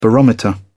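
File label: "barometer", British English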